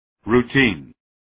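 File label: "routine", English word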